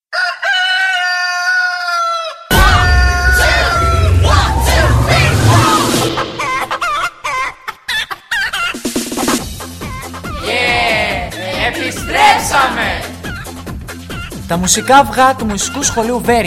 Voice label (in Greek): spot